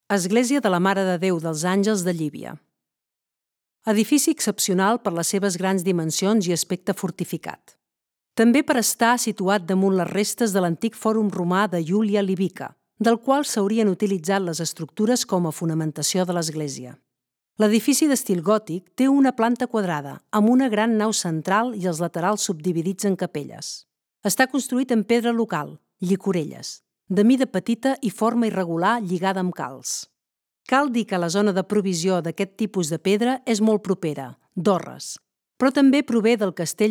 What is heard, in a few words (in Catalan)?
locucio catala; mare deu angels llivia